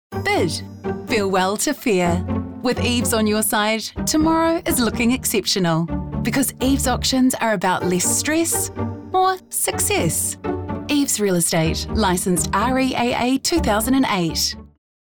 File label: RADIO